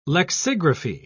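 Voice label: "lexigraphy", British English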